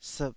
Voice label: "siip3", Taiwanese syllable